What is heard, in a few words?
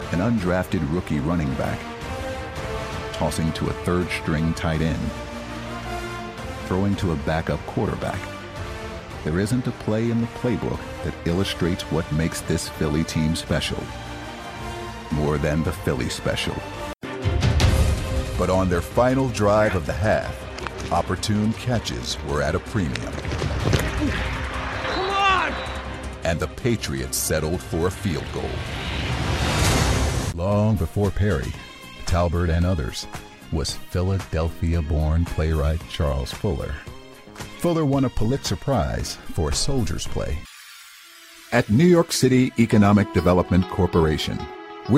narration male